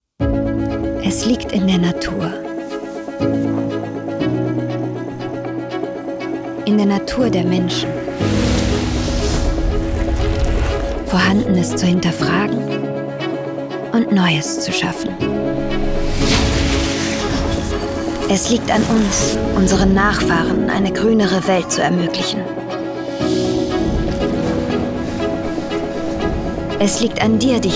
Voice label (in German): deutsch; KAPSCH; SYNCHRON